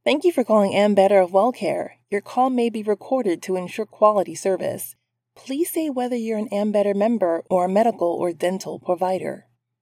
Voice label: TELEPHONE; Voice over; AMBETTER